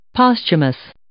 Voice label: "posthumous", English

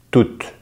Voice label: "toute", French

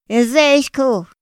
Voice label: "ma zëxjo", Otomí; Días de la semana; INPI; INALI

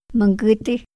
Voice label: "ma ngüti", Otomí; Días de la semana; INPI; INALI

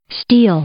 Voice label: "steal", English; i-ɪ; verb

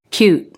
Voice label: "cute" kjuːt̚, English

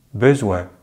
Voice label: "besoin", French; Vocales orales en francés; easypronunciation

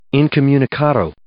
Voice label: "incommunicado", English

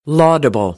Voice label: "laudable", American; AmericanBritish

